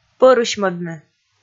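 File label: "пӧрысьмӧдны", Komi, female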